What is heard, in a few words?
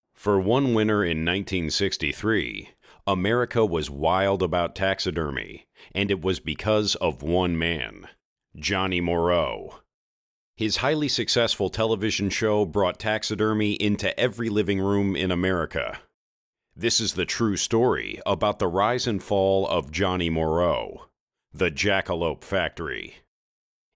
Voice Over